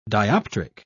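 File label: "dioptric", British English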